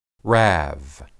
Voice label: rab, hebrew

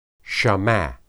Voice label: "shama", Hebrew

